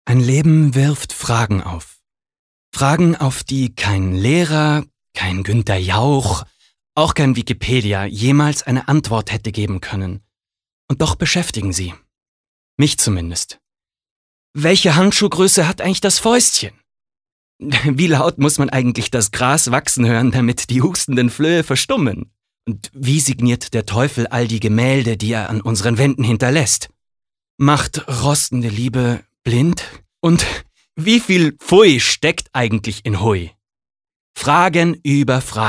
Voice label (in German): Schauspiel, Dynamisch